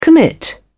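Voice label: "commit", English, British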